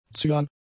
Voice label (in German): "zyan", German; adjectives